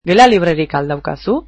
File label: Do you have rooms available, basque